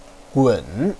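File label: "gun3", Mandarin Chinese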